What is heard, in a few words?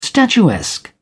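"statuesque", British English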